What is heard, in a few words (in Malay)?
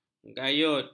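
"gayut", Malay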